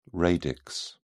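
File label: "radix", British English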